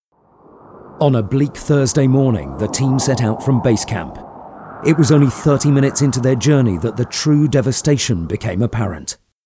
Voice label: Narration